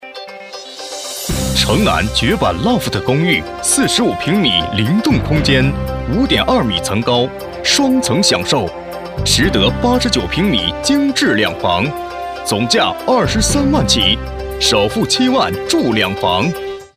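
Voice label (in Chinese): 广告 男 激情地产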